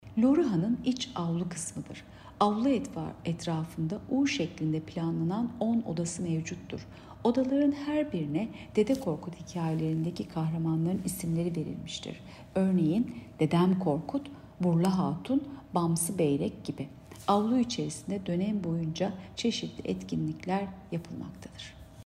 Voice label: turkce